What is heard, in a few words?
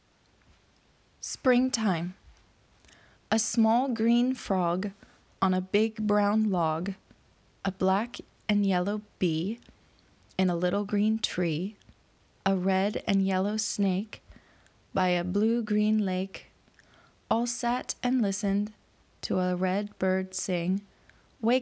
Springtime Poem, english